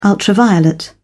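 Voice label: "ultraviolet", British English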